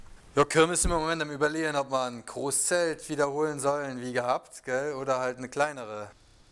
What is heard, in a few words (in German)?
wittlich Freundesgespraech